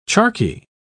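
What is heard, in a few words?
"charqui", British English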